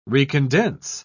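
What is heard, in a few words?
"recondense", British English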